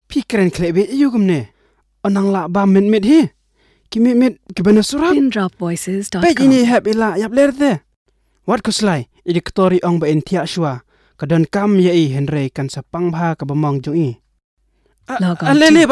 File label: Khasi